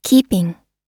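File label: "keeping", US English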